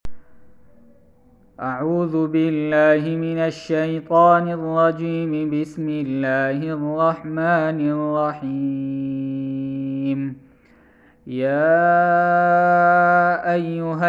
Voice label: Recitation